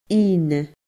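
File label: "ine", Scottish Gaelic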